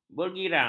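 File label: "bergirang", Malay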